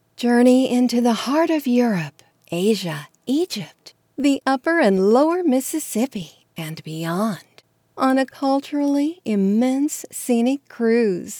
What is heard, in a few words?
Commercial; Viking